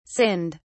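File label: "sinned", British English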